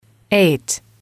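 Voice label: "eight", English, female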